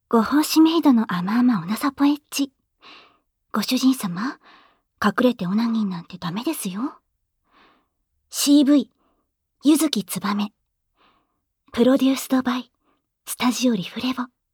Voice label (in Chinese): 標題朗讀